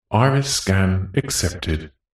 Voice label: science fiction system voice male says iris scan accepted